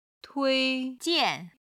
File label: "tui1 jian4", Chinese